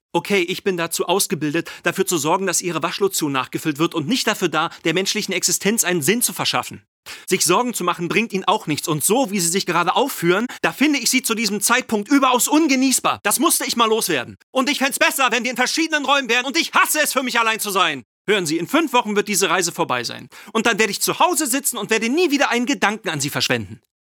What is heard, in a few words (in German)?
Real Synchron; LOFT VOICES